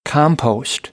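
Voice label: "compost", American English; AmericanBritish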